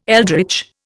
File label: "eldritch", Indian